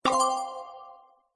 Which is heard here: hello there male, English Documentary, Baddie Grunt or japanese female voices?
Baddie Grunt